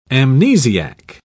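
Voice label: "amnesiac", English, British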